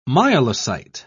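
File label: "myelocyte", British English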